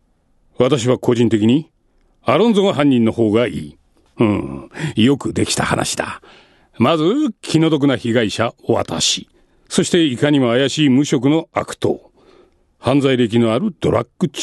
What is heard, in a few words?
male voice